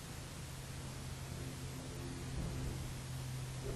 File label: are you gonna leave me deep male voice